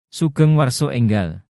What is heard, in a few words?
new year javanese; Sugeng Warso Enggal